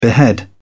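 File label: "behead", en, uk British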